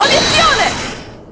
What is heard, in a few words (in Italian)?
"obiezione", Italian